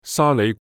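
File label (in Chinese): "沙梨", Cantonese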